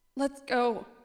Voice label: sad